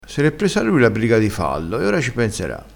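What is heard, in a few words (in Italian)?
"briga", vocabolario dialettale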